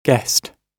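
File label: "guessed", British English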